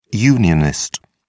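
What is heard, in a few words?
"unionist", British English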